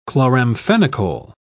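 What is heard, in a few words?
"chloramphenicol", English, British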